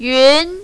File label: "yun2", mandarin, female